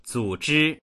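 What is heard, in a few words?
"zu3zhi1", Mandarin Chinese; 组织; zǔzhī; 組織する; HSK４級必修単語集（X～Z音声付）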